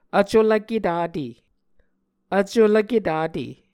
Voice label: "vculvke tate", Muscogee